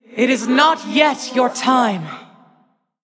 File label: Female Spirit Healer Play